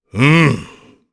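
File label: Vox Aff Japanese; Ricardo; kingsraid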